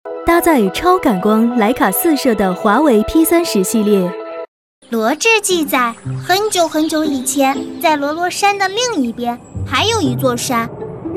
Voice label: chinese mandarin commercial